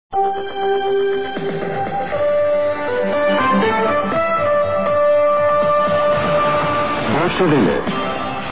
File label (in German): ansage